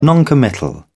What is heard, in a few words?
"noncommittal", en uk